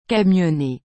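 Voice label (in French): "camionner" French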